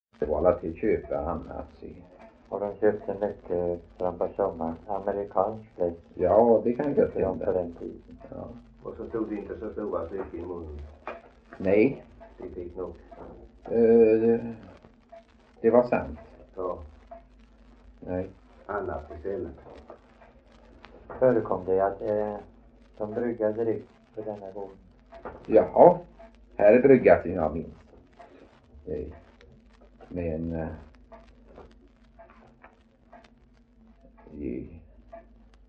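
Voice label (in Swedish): Veddige Halland, dialekter; Folkminnen; Svenska sagor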